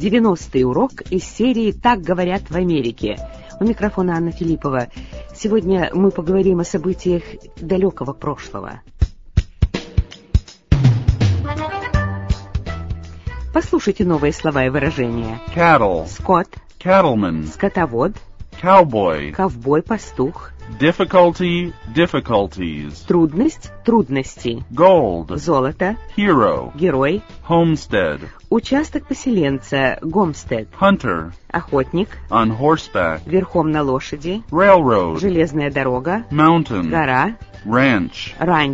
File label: russian english